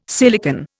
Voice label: "silicon", Indian